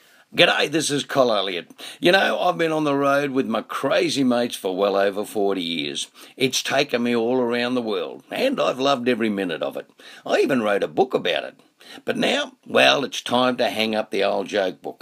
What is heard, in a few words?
radio advertisement